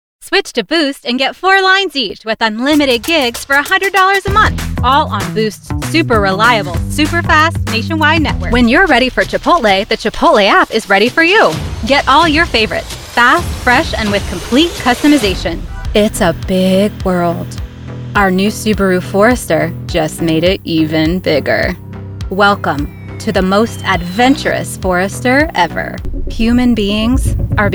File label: COMMERCIAL